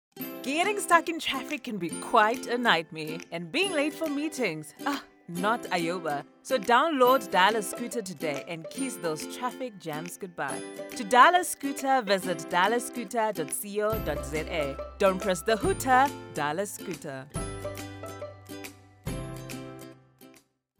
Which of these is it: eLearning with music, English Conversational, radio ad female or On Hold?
English Conversational